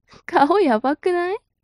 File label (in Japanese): mob女子台詞